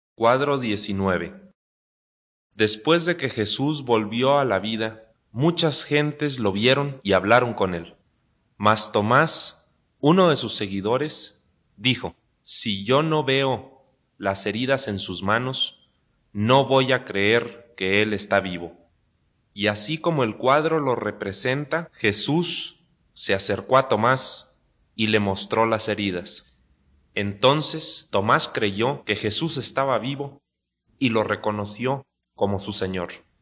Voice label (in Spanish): good news spanish mexico